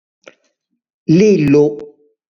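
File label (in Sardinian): "Lillu", Sardinian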